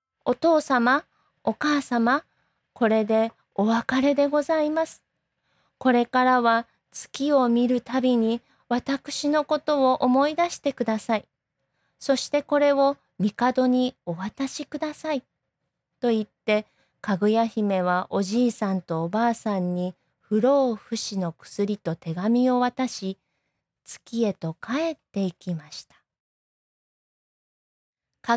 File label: Japanese